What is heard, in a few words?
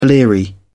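"bleary", British English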